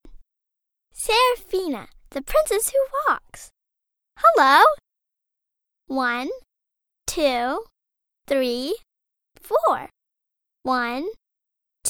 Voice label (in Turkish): Amerikan Ingilizcesi Kiz Cocuk, Kadın Ses